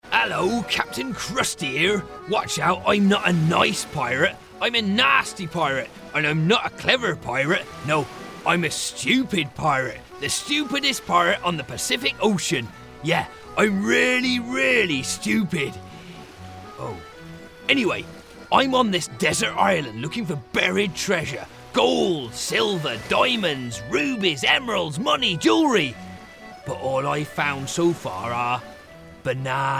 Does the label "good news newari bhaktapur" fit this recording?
No